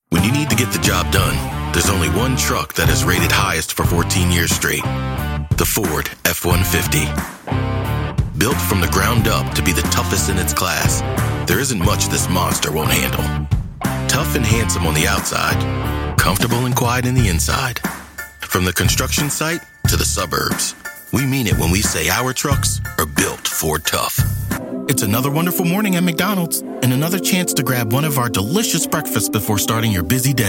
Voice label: Commercial